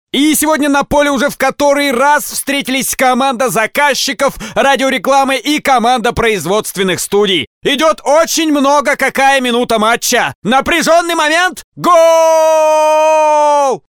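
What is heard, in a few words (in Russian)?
Sportivnyj kommentator, sports commentator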